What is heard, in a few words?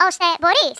orders, Speech, Greek; Worms Armageddon